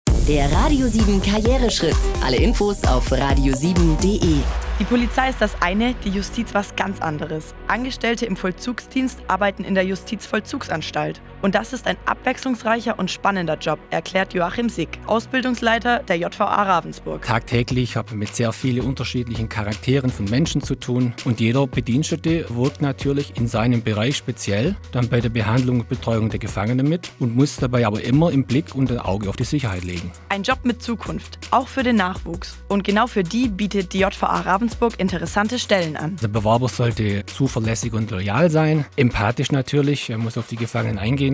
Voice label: Infomercial; JVA